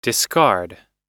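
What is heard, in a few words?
"discard", American English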